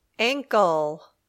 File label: "ankle", English